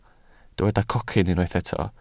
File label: "cocyn", welsh